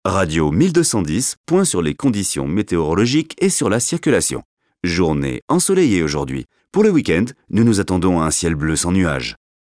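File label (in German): French Kommentar Freundlich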